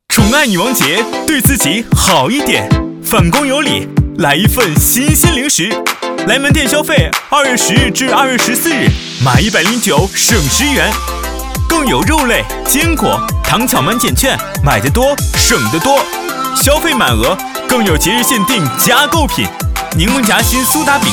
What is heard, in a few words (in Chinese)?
促销 零食